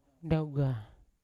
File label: "ndaugan" to take, Yawa